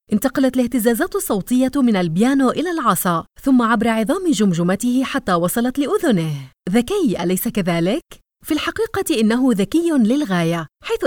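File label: Arabic female Explainer; voice over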